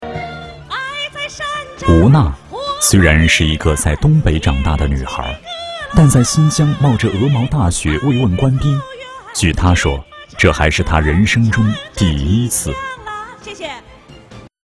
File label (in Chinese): 男, 专题, 人物解说